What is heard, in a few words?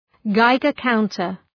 "Geiger counter", English